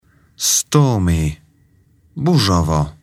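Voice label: "stormy", English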